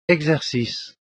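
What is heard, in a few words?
"exercice", French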